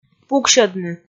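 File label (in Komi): "пуксьӧдны", Komi, female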